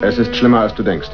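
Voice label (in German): "schlimm", German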